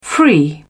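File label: "free", British English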